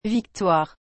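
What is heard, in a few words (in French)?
"victoire", French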